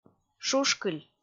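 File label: "шушкыль", Komi, female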